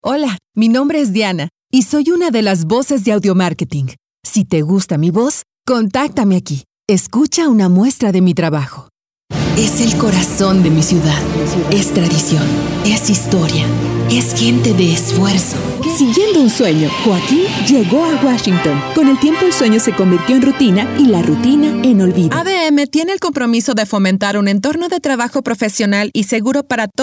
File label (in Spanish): Locutora mexicana